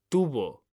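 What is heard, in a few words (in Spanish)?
"tubo" Spanish, male; mekanta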